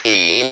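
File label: speak and spell "P", robotic; ALPHABET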